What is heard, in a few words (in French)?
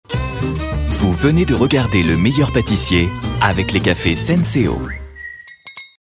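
chaleureux, voix off parrainage, homme; SENSEO chaleureux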